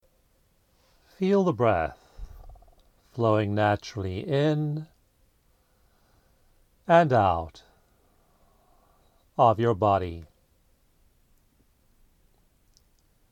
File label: Meditating in the Group Energy Field no music